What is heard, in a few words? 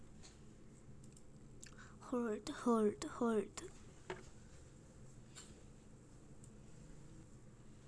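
"hold", spoken word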